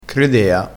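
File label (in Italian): "credea", dialettale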